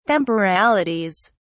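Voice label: "temporalities", British English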